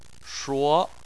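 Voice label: "shuo", Chinese, second tone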